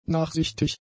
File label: "nachsichtig", German; adjectives